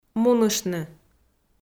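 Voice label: "муныштны", Komi, female; kpv audio dict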